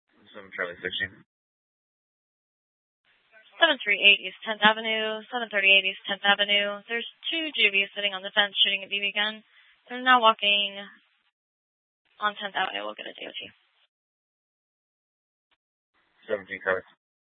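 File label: Police Department Dispatch, scanner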